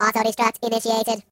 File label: Kamikaze, Robot; hedgewars